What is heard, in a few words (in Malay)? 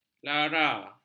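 "lara", Malay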